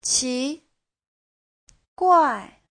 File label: "qi2guai4", Chinese; 奇怪; Giáo trình chuẩn HSK3